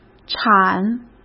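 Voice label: "产", Mandarin Chinese; chinese-flashcards; hsk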